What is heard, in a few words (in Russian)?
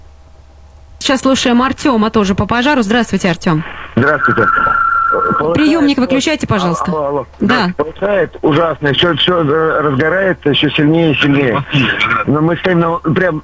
Звонок на радио; Пожар микояновского мясокомбината; Сити ФМ